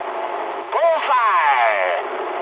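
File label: English bomb success mood med; War Thunder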